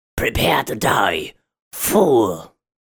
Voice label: Fool mean